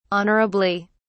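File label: "honourably", British English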